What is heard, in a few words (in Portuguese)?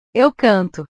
"eu canto", portugues